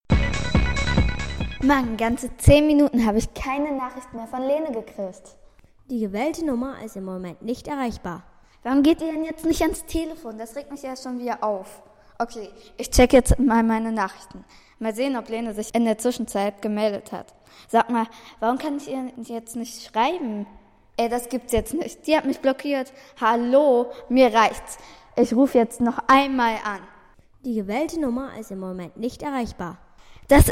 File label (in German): hoerspiel handy